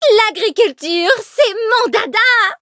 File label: loading, fr